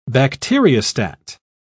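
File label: "bacteriostat", British English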